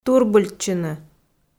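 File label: "турбыльтчыны", Komi, female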